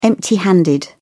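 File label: "empty handed", British English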